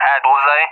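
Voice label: "at bullseye", English, Russia; DCS; Allied Flight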